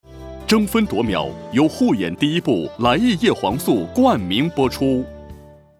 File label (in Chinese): 男, 叶黄素; 来益叶黄素